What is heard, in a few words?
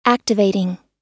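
"activating", US English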